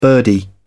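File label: "birdie", British English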